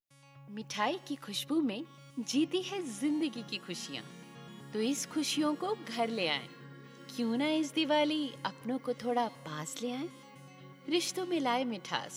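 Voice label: hindi promos, Weiblich; India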